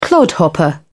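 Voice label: "clodhopper", British English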